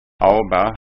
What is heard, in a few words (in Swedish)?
"aba", skanska